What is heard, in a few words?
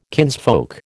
"kinsfolk", American